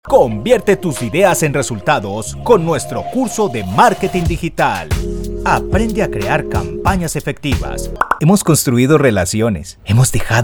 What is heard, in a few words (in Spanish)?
neutro, espanol neutro